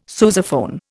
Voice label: "sousaphone", Indian